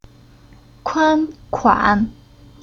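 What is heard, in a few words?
"Kuan", Chinese syllable; Уроки фонетического блока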